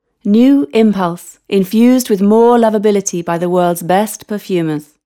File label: Bajada, Ingles (Britanico)